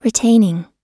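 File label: "retaining", US English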